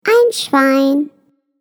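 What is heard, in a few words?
"pig", German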